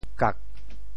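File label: "kak4", Teochew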